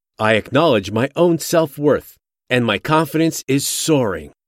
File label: Male Self Worth